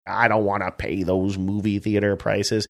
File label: saying i dont wanna pay those movie theater prices funny